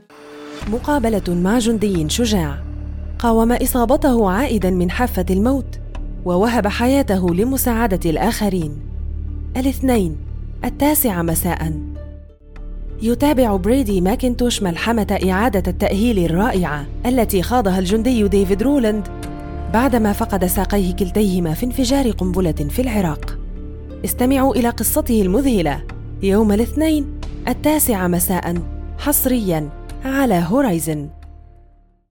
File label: arabic movie trailers